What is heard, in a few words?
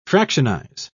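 "fractionize", English, British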